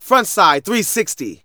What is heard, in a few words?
Narration